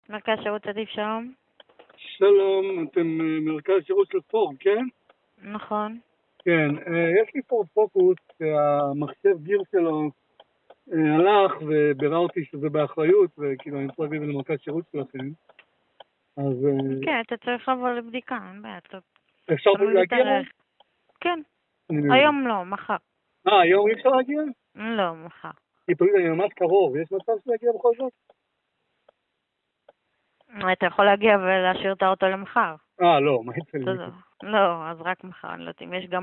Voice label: call recording; ADIV GARAGE - E. ARMOZA LTD.